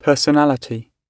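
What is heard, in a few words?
"personality", British English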